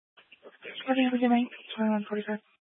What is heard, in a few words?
Police Dispatch, scanner; Police 1 Dispatch